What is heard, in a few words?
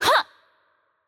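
voice over, Korean; Change Player; bloom minigame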